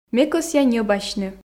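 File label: Komi, female; ме кӧсъя ньӧбасьны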